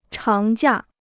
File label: "长假", Chinese